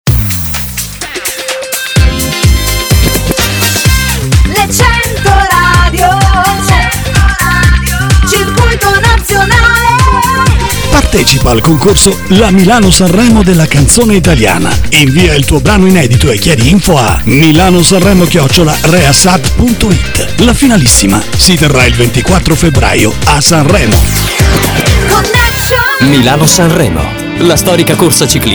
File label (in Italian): Messaggio Radio